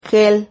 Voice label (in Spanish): "gel", Silabas